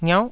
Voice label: "ngiauh9", Taiwanese syllable